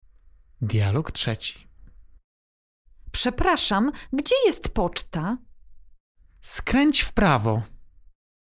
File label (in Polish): dialog